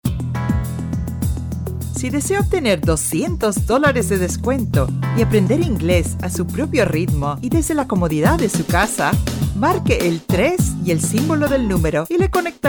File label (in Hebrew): PR, קריינות